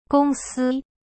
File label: "公司 gong si", Chinese